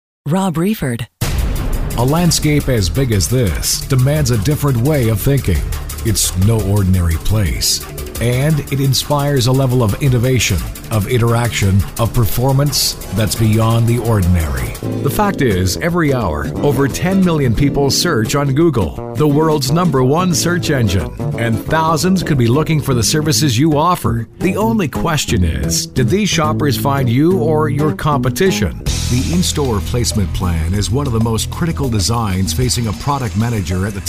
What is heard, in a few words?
CORPORATE